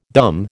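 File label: "dumb", American English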